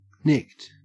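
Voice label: "nicked", Australian English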